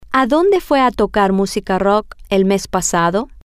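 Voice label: Spanish, to where did you go to play the guitar last month, female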